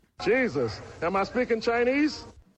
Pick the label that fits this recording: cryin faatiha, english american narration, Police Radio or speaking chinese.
speaking chinese